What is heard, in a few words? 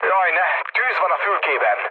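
Hungarian on fire mood low, speech; War Thunder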